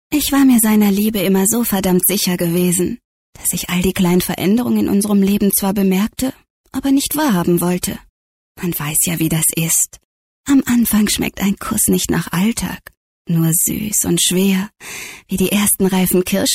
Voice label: Schauspiel Lebendig

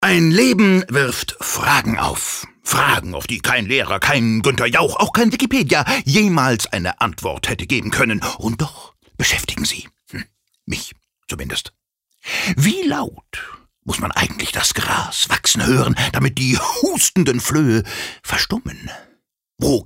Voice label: Schauspiel Dynamisch

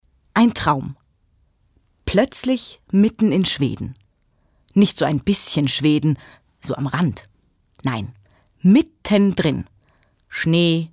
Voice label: Schauspiel Neutral

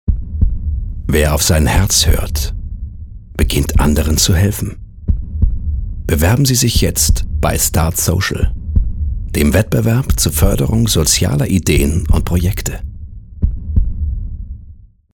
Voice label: Dynamisch; Fremdtake

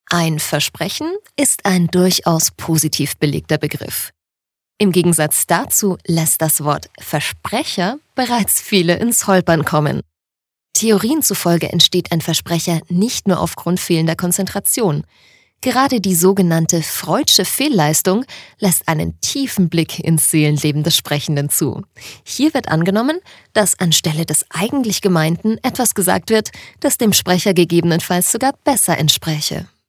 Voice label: Versprecher Freundlich, voices

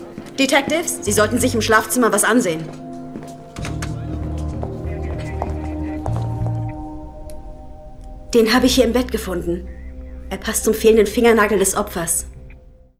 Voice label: Fremdtake Dynamisch

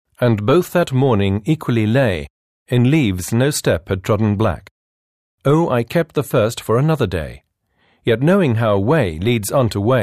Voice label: Kommentar Neutral

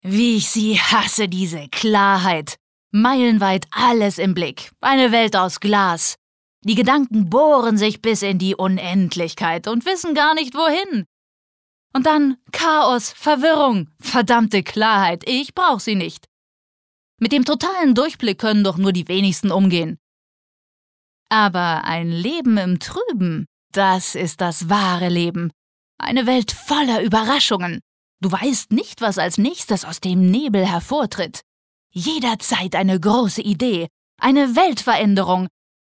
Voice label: Schauspiel, Energisch

